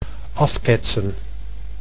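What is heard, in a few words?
"afketsen", Dutch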